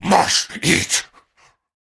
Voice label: Cannibal Male Taunt; Trunk Act One Monster